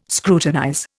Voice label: "scrutinize", Indian

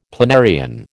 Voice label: "planarian", American

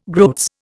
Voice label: "groats", Indian

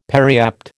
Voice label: "periapt", American

